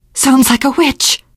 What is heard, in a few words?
heard witch, teengirl; survivor voice